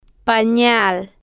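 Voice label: "panal", slow, female, Spanish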